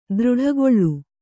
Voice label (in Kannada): "ದೃಢಗೊಳ್ಳು", Kannada